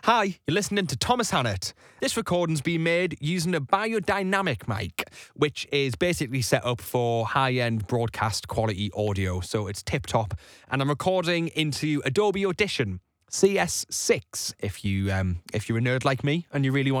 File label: Remote Studio Unprocessed